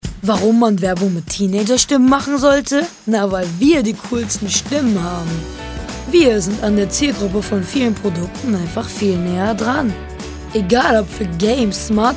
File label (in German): Werbung Teenagerstimmen